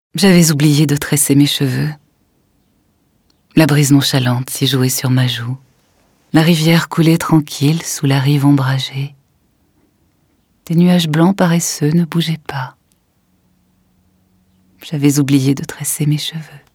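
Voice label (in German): französische Sprecherin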